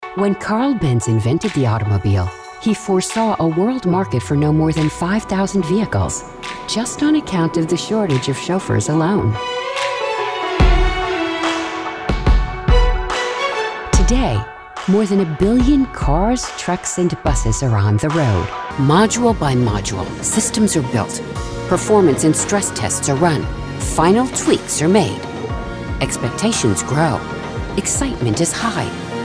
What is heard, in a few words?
Non Broadcast Corporate Mix, female voice over